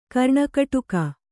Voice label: "ಕರ್ಣಕಟುಕ", Kannada

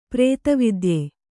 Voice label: "ಪ್ರೇತವಿದ್ಯೆ", kannada

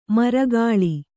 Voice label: "ಮರಗಾಳಿ", Kannada

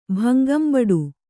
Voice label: "ಭಂಗಂಬಡು", Kannada

